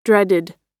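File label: "dreaded", US English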